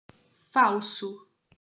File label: "falso", Portuguese